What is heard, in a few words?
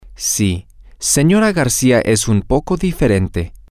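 yes Mrs Garcia is a little different, Spanish, male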